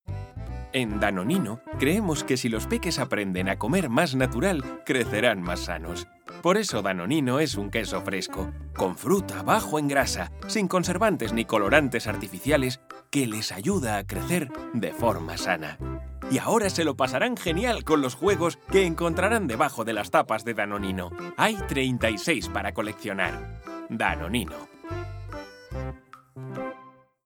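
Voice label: comercial, Spanish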